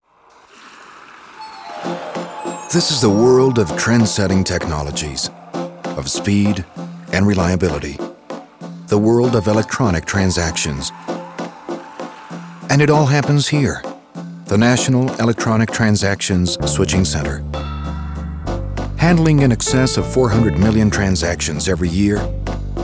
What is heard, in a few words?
Industrial Delivery English, locucion